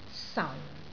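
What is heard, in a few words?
"sau4", Cantonese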